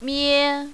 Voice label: "mie1", mandarin, female